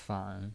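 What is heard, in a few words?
"fan" Mandarin Chinese, third tone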